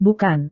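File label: "bukan", Indonesian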